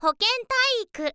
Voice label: "hokentaiiku", girl; voice